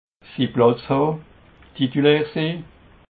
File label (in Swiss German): "platzha", alsace